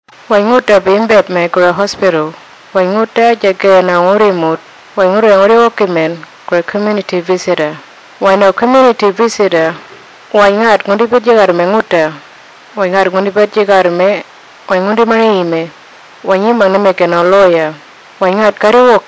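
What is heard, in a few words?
Kuniwinjku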